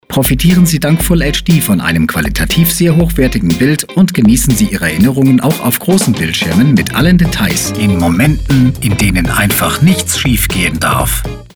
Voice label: Documentary

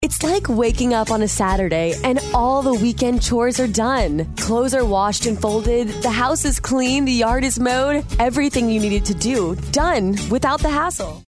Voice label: upbeat

